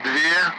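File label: Speech, Russian; Allied Flight; Digits; DCS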